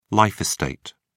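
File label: "life estate", British English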